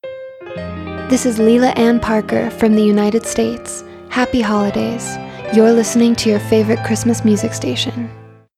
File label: stationdrop neutral with intro bed; station drops